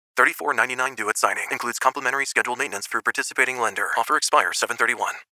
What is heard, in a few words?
Disclaimer Automotive; Automotive Voiceover Styles That Fit the Road Ahead